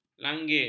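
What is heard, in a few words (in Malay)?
"langir", Malay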